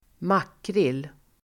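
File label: "makrill", Swedish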